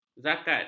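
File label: "zakat", Malay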